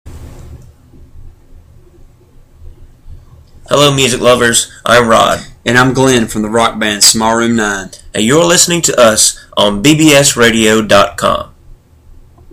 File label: bbs radio promo small room